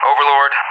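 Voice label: "Overlord", English; Callsign; DCS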